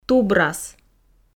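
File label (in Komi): "тубрас", Komi, female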